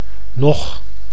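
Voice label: "nog", Dutch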